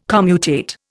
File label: "commutate", Indian